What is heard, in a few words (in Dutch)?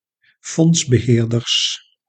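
"fondsbeheerders", Dutch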